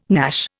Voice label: "gnash", Indian

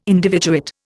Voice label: "individuate", Indian

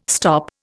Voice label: "stop", Indian

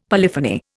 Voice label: "polyphony", Indian; Dictionary

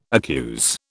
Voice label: "accuse", British

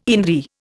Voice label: "indri", Indian

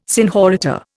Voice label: "senhorita", Indian

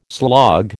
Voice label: "slog", American

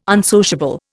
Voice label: "unsociable", Indian

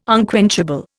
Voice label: "unquenchable", Indian

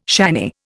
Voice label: "shanny", Indian

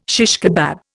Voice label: "shish kebab", Indian

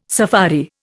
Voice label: "safari", Indian

